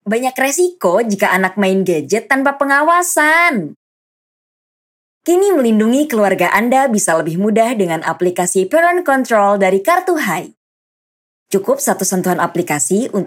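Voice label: casual Indonesian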